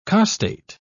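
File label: "costate", British English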